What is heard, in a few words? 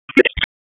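EMS DISP, radio; wake; p25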